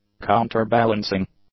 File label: "counterbalancing", English; adjectives